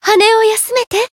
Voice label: Voice heal jp

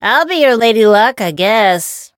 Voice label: variable chant; soira

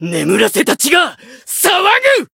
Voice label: Voice variable chant, jp

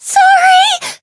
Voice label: Voice damaged; melody

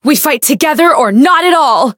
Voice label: Voice chant; flammelapis

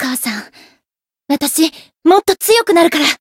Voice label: growth, jp

